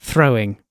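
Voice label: "throwing", British English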